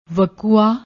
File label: "wakau", Punjabi; ਵਕੂਆ